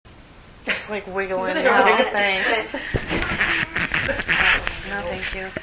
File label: room weird voice